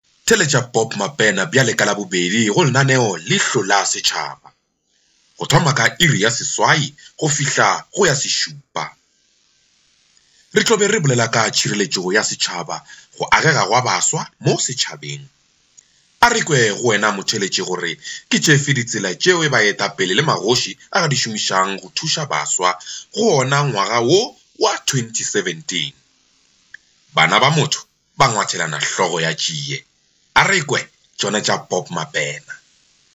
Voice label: Sepedi

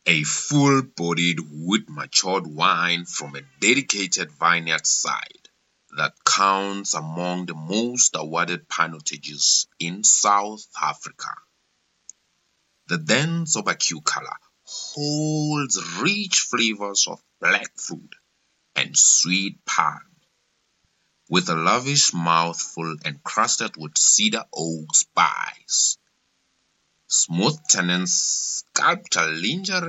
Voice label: Voice ENGLISH

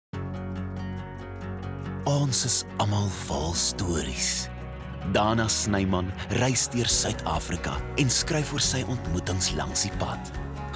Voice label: Soft sell Afrikaans

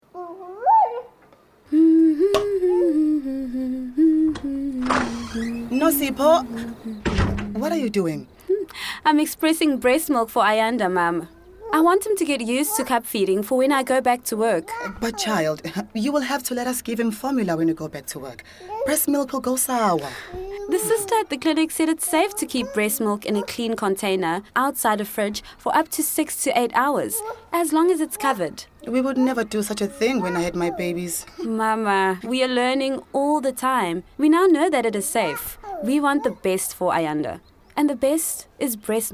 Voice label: PSA English; KIBS

